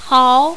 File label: "hao2", mandarin, female